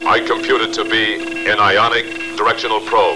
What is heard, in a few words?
Ionic Probe, robot; robot b9